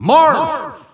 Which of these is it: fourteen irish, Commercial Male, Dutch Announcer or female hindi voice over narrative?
Dutch Announcer